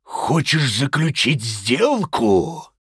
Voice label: Male Troll Play